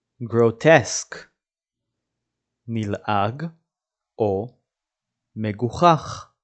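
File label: "grotesque" English, "נלעג מגוחך" Hebrew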